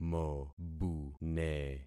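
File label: "mobune", concatenated syllables; Affixing experiment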